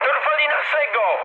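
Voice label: Polish aircraft destroyed mood high; War Thunder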